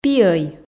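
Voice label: "пиӧй" Komi, female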